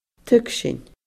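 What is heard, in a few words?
"tuigsinn", Scottish Gaelic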